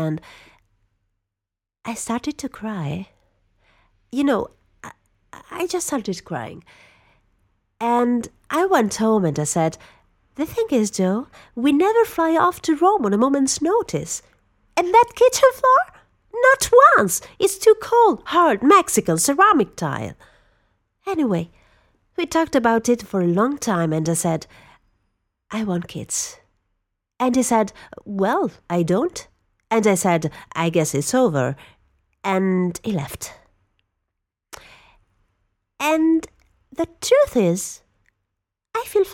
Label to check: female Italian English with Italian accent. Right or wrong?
Right